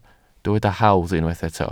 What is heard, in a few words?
"hawdd", Welsh